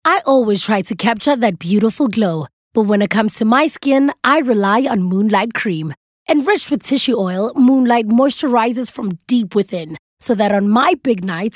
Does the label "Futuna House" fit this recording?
No